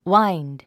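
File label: "wind", English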